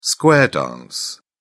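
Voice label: "square dance", British English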